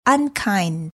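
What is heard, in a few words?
"unkind", English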